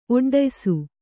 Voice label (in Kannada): "ಒಡ್ಡಯಿಸು", Kannada